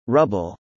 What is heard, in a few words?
"rubble", spelling